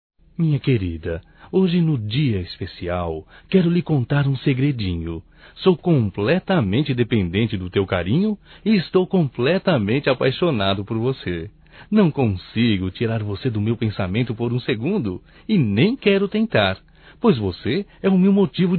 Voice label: ANIV Romântico (M)

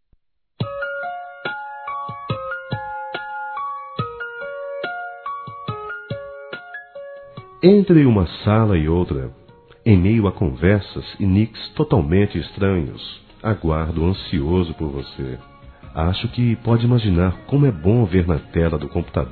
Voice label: Namoro Virtual Masculino; Telemensagens; Telemensagens 2017

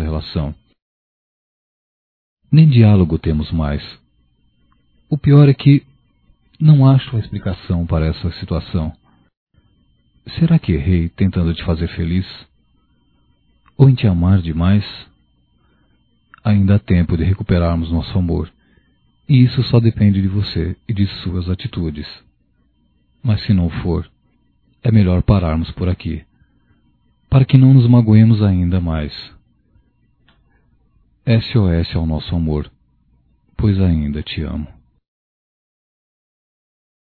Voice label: Precisamos Conversar Masculino, Só Voz; Telemensagens; Telemensagens 2017

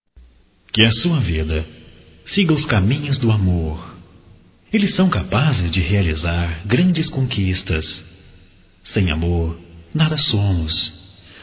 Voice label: Vitória Geral Masculino

